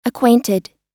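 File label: "acquainted", US English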